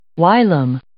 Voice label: "whilom", English

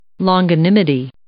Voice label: "longanimity", English

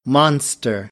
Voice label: "monster", American English; ɑː - ɒ

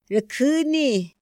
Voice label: "ra kjüni", Otomí; INPI; INALI

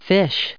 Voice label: "fish", English; verb

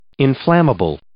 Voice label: "inflammable", English

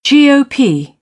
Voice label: "GOP", British; oʊ - əʊ; English

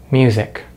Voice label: "music", English; Consonants in American English; easypronunciation

